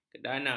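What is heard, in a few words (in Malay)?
"kedana", Malay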